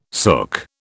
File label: "sook", British